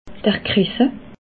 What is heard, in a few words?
"kresse", alsace